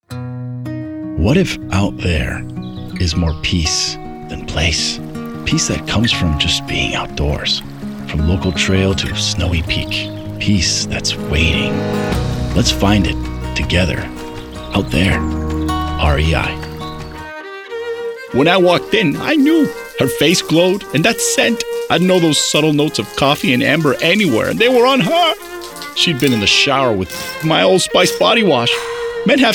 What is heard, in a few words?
Commercial